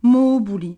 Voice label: "mobuli", Gravona

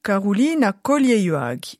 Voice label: réponse, Moita Verde – nord

